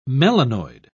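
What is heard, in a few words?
"melanoid", British English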